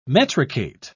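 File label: "metricate", English, British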